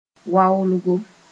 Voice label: "waolgo", Mooré